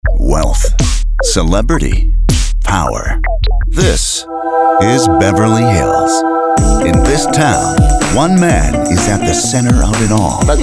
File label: Promo